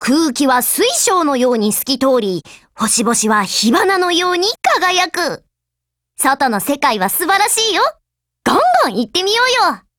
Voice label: DIALOGUE, JP

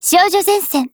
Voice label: TITLECALL, JP; G36Mod

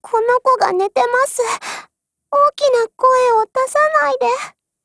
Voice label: DIALOGUE, JP; ASVAL

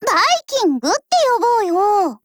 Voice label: DIALOGUE, JP; MP446

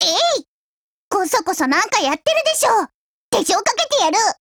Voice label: DIALOGUE JP; MP446Mod

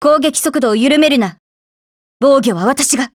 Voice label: ATTACK, JP; AN94Mod

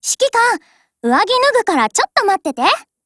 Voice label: LOADING JP; C96Mod

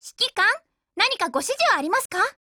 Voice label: HELLO JP

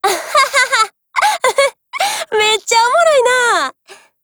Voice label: DIALOGUE, JP; AR

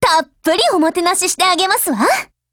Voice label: MEET, JP; 88typeMod

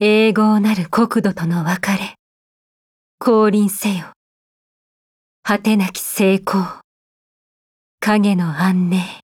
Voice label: Victory JP; Nemesis

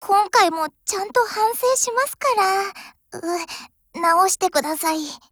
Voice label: FIX, JP; 63type

